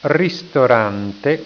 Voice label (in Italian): "ristorante", italiaans; plaatsen